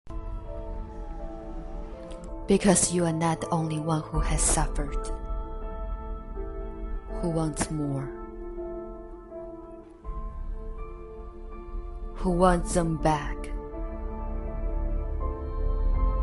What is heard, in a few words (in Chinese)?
独白; 神奇女侠